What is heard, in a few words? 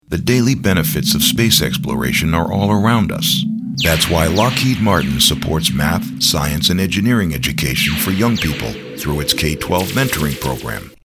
voiceovers